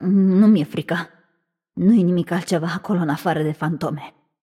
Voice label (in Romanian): Room2 VO Object Interact, vo, ro-ro